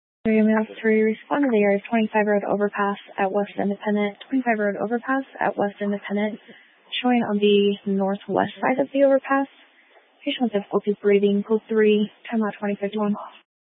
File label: Fire Dispatch, scanner